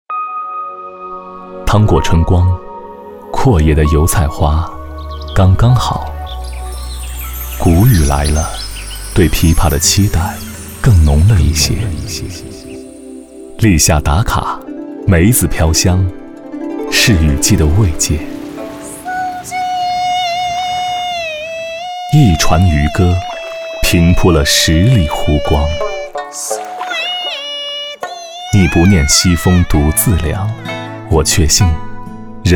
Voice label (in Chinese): 男, 专题, 广播版形象; 2019苏州生活